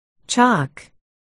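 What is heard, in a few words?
"chock", spoken word, articulation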